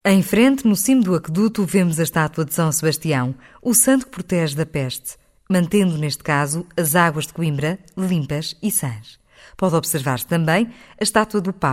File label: European Portuguese F